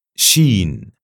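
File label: "shiin", Arabic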